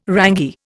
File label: "rangy", Indian